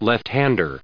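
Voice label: "left-hander", US English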